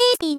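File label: "espin", voice; Techmino; rin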